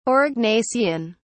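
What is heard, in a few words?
"aurignacian", British English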